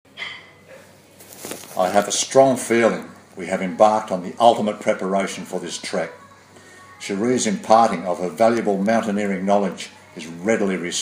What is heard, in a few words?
voiceover